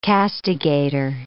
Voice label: "castigator", English

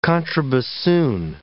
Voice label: "contrabassoon" English

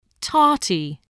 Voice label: "tarty", English

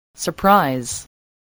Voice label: "surprise", English

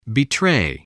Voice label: "betray", English

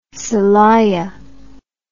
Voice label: "celaya", English

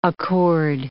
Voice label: "accord", English

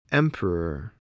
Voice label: "emperor", spelling

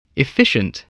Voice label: "efficient", English

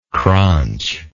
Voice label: "cranch", English

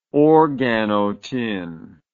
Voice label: "organotin", English word

